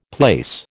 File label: "plaice", American